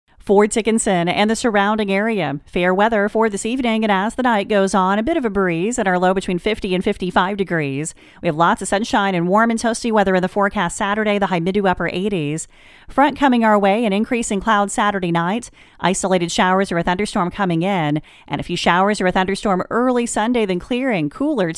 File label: Sports Radio